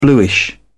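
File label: "bluish", British English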